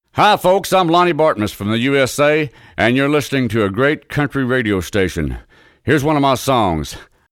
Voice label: Radio Liner